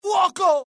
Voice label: alert, dialogue IT; mohaa; attack